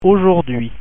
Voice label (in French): "aujourd'hui", French